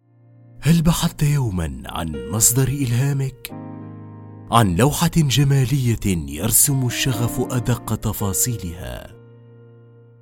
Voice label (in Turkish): Arapca Erkek Klasik Arapca, seslendirme; erkek sesler